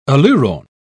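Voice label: "aleurone", British English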